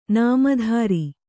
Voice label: "ನಾಮದಾರ", Kannada